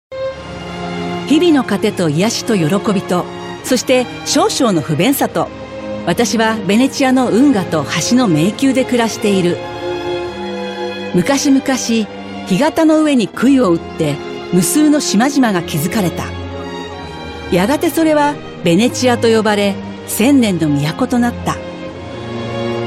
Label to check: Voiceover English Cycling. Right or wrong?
Wrong